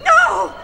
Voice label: "no", scared woman; expletives